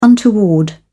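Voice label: "untoward", British English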